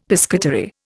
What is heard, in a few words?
"piscatory", Indian; Dictionary